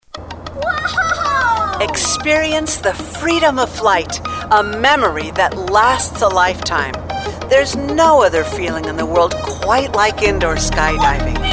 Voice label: Entertainment Exciting Fun Sporty high tone, voiceover commercial branding